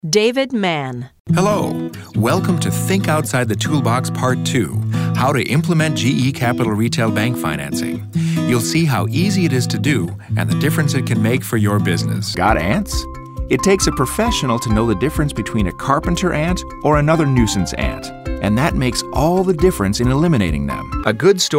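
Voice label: Narration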